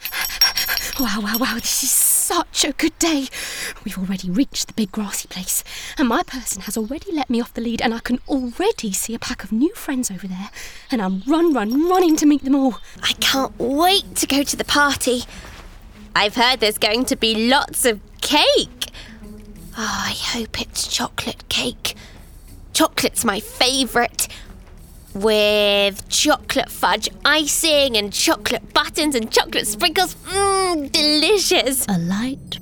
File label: character, Voiceover